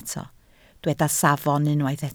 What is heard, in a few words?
"safon", Welsh